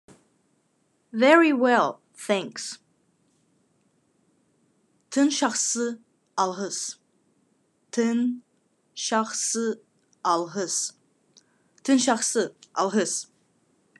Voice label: "Very well thanks", Khakas